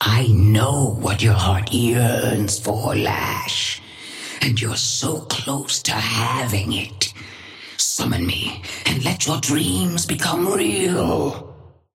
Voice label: female ally start; Patron; lash